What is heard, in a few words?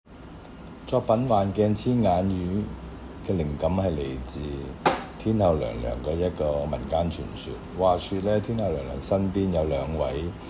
cantonese; Prismatic rainbow mascot; 幻鏡千眼魚; 西貢海藝術節｜Sai Kung Hoi Arts Festival